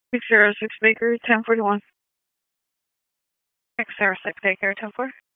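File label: Police Tactical 1, p25; Wake Forest Police Tac 1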